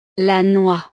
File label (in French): "la noix", frances; frutas